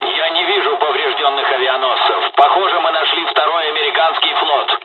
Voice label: dialog, dialogs russian; War Thunder